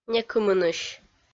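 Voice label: "некымынысь", Komi-Zyrian, female; kpv audio dict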